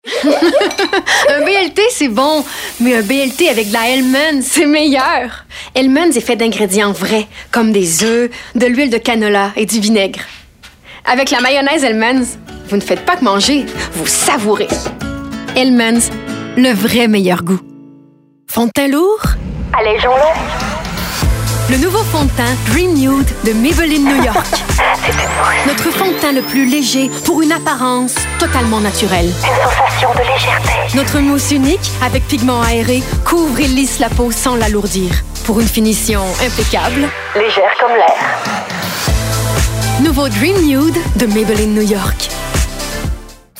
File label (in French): demo publicites